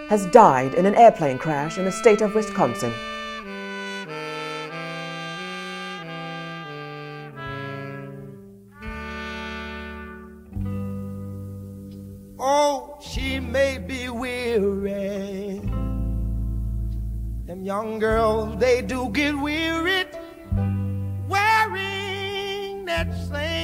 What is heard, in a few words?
BBC Announcement